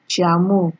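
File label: "chairmo", Nigerian Pidgin, female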